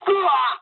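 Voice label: damaged pilot, speech japanese; War Thunder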